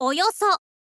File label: "oyoso", girl; voice; info girl